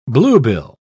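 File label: "bluebill", British English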